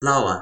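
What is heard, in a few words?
"lawa", Toki Pona; linku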